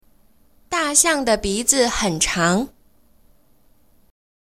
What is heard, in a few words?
speak mandarin; kids